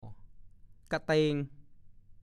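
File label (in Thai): "กะเตง" ภาษาโคราช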